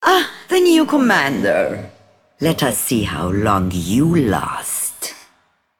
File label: Female Broker New Game